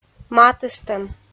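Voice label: "матыстӧм", Komi, female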